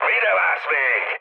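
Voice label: attack position, mood high, Hungarian; speech; War Thunder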